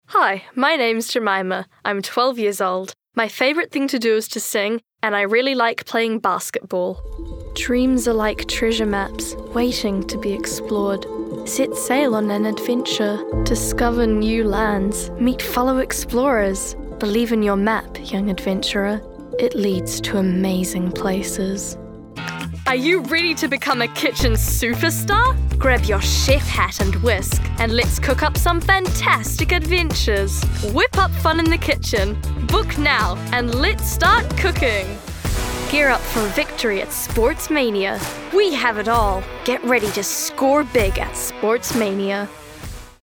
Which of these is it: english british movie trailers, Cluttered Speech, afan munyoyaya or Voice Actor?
Voice Actor